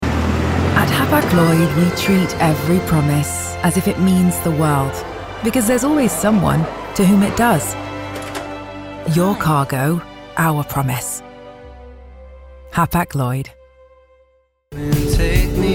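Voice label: Commercial English, female voices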